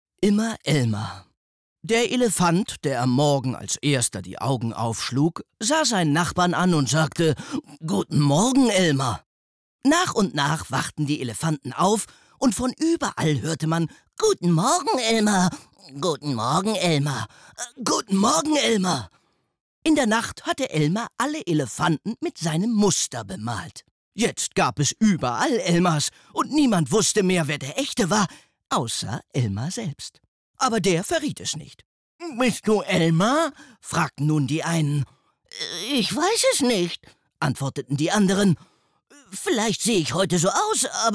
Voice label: hoerbuch; immer elmar

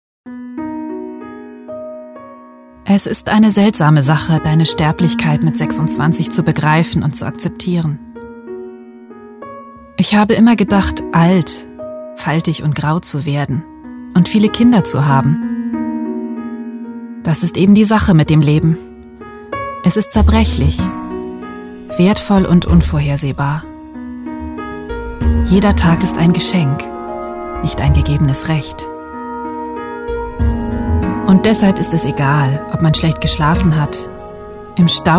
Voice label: Australierin Emotional

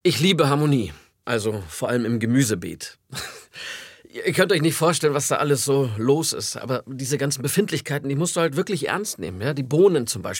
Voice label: Natuerliche Stimme

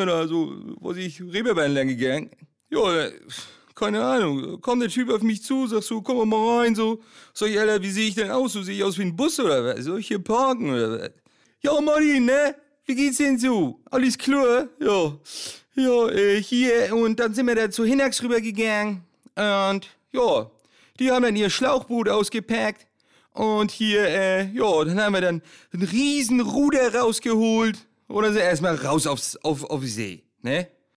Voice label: dialekt

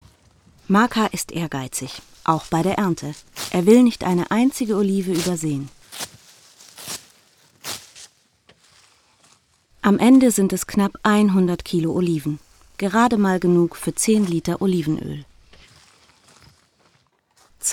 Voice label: Doku Kommentar; LOFT VOICES

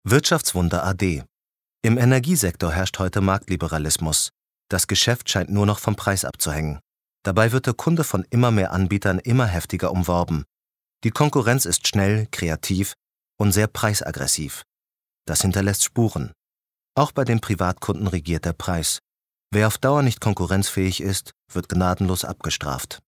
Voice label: nachrichten

